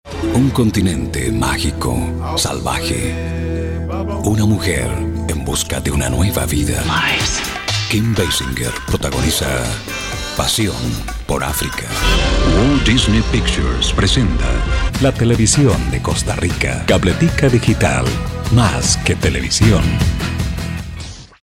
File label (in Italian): Voce spagnola